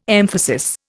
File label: "emphasis", Indian